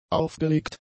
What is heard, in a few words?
"aufgelegt", German; adjectives